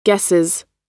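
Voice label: "guesses", American English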